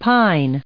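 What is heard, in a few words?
"pine", us English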